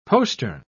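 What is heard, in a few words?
"postern", British English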